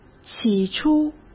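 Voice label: "起初", Mandarin Chinese; chinese-flashcards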